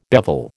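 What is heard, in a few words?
"bevel", American English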